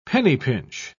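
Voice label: "penny-pinch", English, British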